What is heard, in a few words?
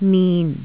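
"min4", Cantonese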